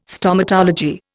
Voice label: "Stomatology", Indian

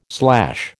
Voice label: "slash", American

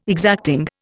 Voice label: "exacting", Indian

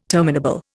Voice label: "terminable", Indian

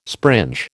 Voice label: "springe", American

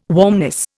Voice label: "warmness", Indian

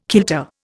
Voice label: "kilter", Indian

